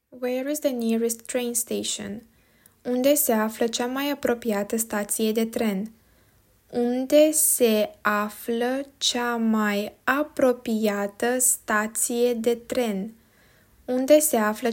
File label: Romanian Where is the nearest train station